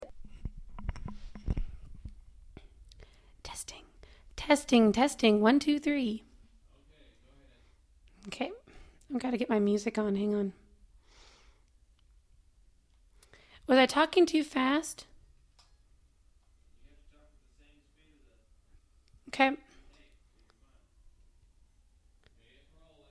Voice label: Voice over